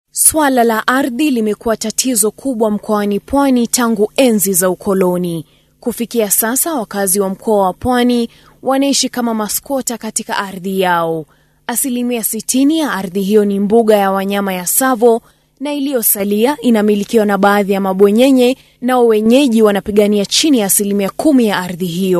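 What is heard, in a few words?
Swahili, female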